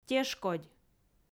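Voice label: "тешкодь", Komi, female; audio dict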